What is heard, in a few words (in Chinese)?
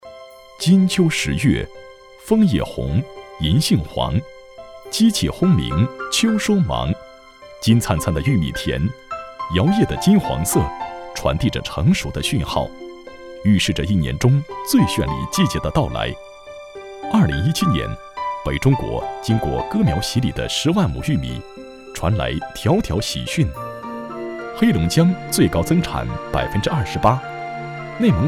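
男国大音-农业解说片; 割苗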